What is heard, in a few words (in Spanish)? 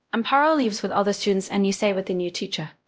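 Voice Acting, es, female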